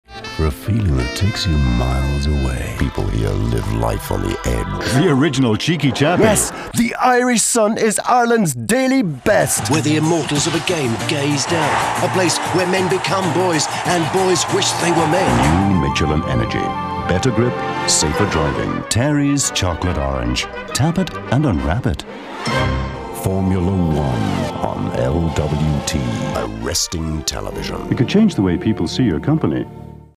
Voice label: Commercial